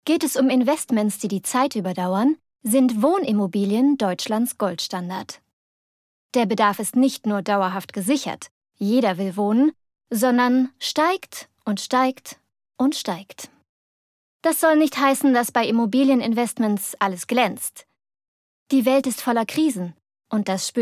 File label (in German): imagefilm; Muttersprache Deutsch